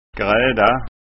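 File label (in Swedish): "grada", Scanian dialect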